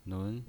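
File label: "nun" second tone, pinyin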